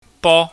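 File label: "bo", Cantonese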